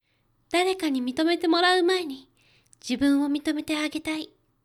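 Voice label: female; 誰かに認めてもらう前に、自分を認めてあげたい。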